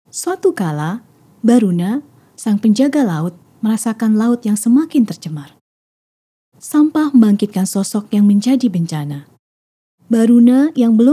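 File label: narration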